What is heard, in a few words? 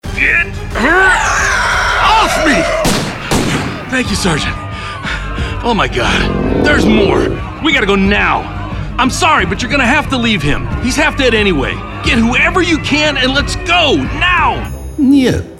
Character Demo